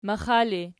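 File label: "maxa" ergative, Archi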